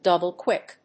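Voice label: "double-quick", English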